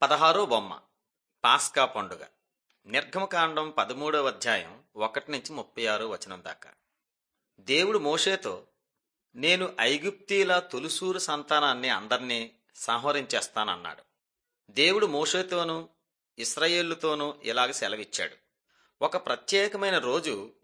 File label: telugu bangladesh; mighty men of god